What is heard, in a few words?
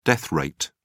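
"death rate", British English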